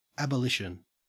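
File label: "abolition" Australian English; pronunciation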